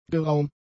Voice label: "geraum", German; adjectives

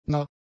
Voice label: "nah", German; adjectives

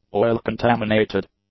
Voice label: "oil-contaminated", english; adjectives

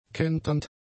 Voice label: "kenternd", German; adjectives

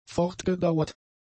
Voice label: "fortgedauert", German; adjectives

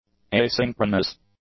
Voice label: "asynchronous", English; adjectives

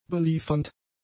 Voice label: "beliefernd", German; adjectives

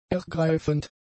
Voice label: "ergreifend", German; adjectives

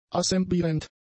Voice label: "assemblierend", German; adjectives

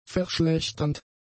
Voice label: "verschlechternd", German; adjectives

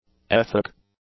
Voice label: "ethic", English; adjectives

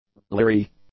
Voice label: "leery", english; adjectives

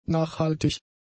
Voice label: "nachhaltig", German; adjectives

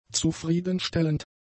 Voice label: "zufriedenstellend", German; adjectives

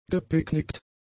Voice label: "gepicknickt", German; adjectives

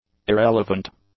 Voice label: "irrelevant", english; adjectives

